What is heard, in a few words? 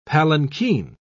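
"palankeen", British English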